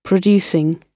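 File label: "producing", British English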